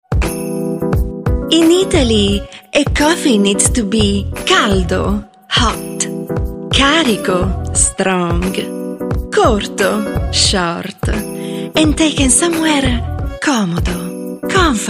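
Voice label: Italian Accented English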